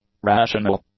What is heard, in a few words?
"rational", English; adjectives